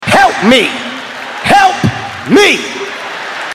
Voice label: taunt help me; 21st and dank memes; phx new taunts pack 2